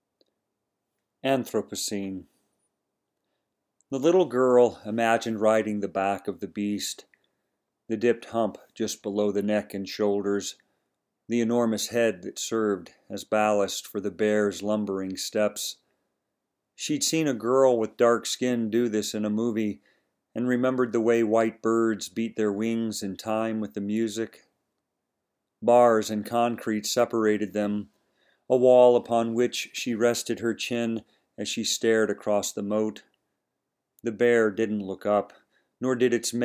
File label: narration vocal